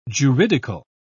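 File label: "juridical", British English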